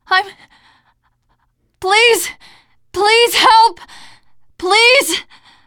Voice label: ledge hang end, teengirl; player; survivor; voice